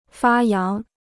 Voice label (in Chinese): "发扬", Chinese